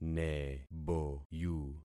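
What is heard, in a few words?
"neboyu", concatenated syllables; Affixing experiment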